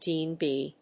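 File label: "DNB", English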